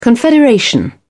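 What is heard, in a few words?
"confederation", British English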